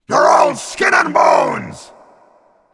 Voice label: pirate mock